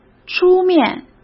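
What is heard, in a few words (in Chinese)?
"出面", Mandarin Chinese; chinese-flashcards; hsk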